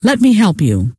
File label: let me help, scientist female